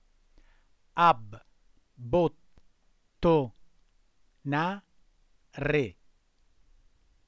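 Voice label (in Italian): "abbottonare" Italian word, voce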